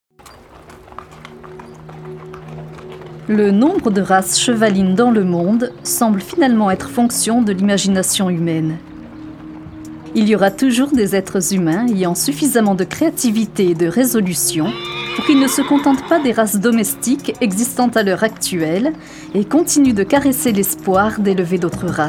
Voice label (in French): documentaire, Voix Documentaire Animalier